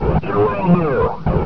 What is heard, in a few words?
flank, combine soldier, far; hl2